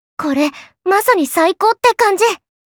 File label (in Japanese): Positive "Saikou", Kawaii Voice